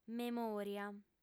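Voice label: "memoria", female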